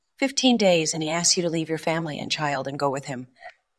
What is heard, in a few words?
VoiceActing es F